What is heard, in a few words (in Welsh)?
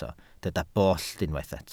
"bollt", Welsh